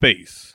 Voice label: bass male